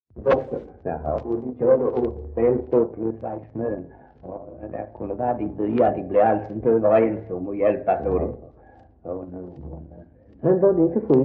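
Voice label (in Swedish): Svensköp Skåne, Svenska sagor dialekter; Folkminnen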